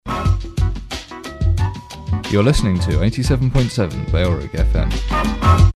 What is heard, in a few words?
male, jazz, jingles; you're listening to 87.7 bfm; bailrigg fm